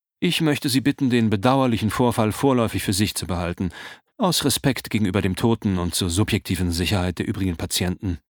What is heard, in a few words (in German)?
Charge Chefarzt Hoerbuch; audible